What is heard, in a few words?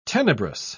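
"tenebrous", British English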